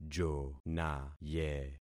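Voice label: "jonaye", concatenated syllables; Affixing experiment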